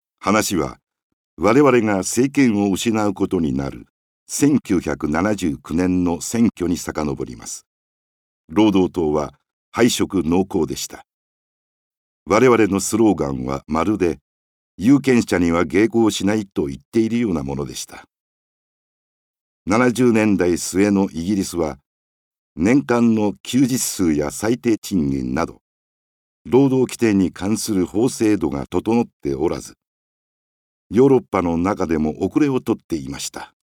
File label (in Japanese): ドキュメンタリー